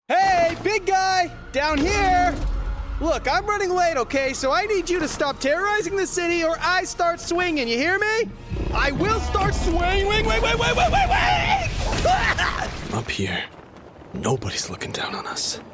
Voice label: animation